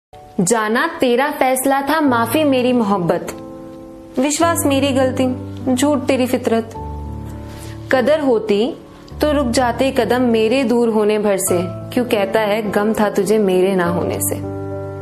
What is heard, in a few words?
Shayari - Tik Tok Ringtone